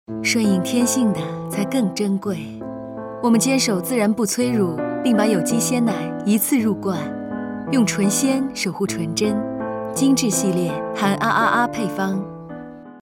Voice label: 模仿; 孙俪

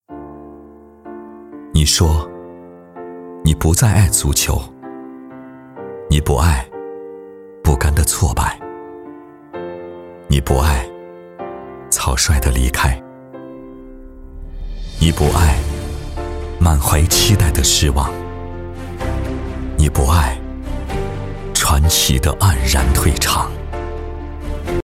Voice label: 走心 独白

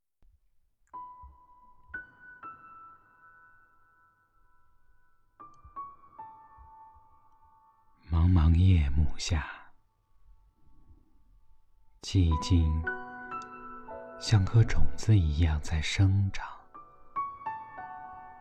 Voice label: 助眠短文

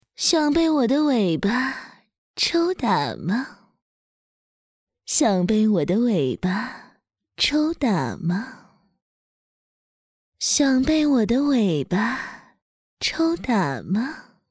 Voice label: 御姐诱惑妖娆

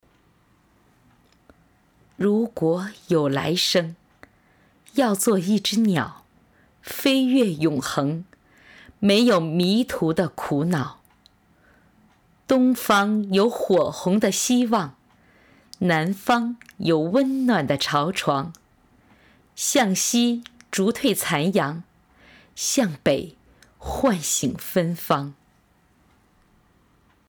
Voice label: 情感类

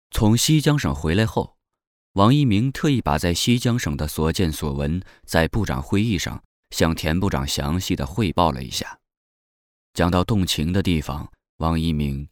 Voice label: 小说旁白

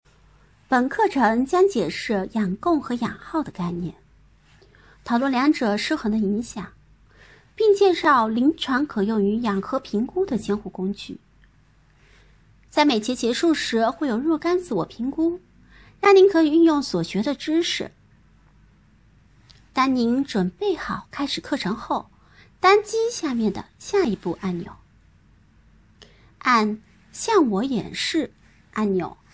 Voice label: 课件

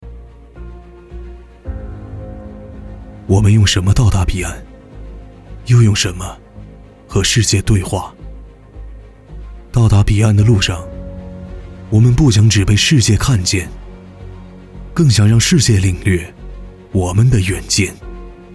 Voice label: 走心; 我们用什么到达彼岸

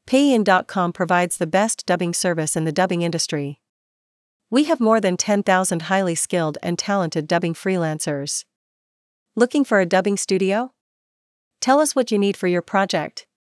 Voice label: 英文配音

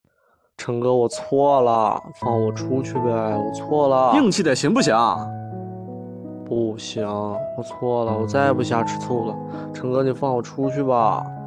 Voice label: 广播剧; 撒野

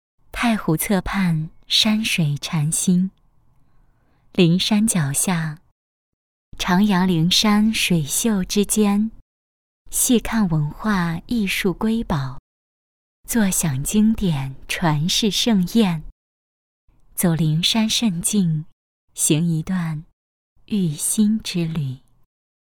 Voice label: 抒情 太湖 侧畔 山水 禅心

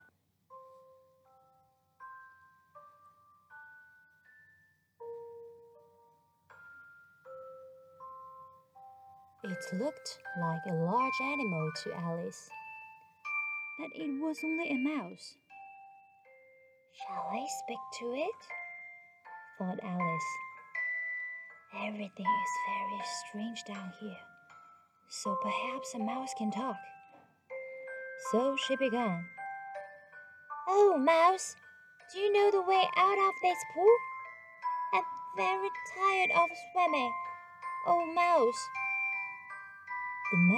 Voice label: 英文旁白角色音; 爱丽丝梦游仙境

